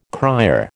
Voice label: "cryer", American